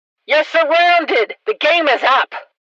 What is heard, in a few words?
tutorial police intro; Blind Drive